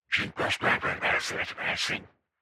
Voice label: Cyber Rude Robot Gibberish